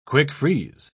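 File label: "quick-freeze", British English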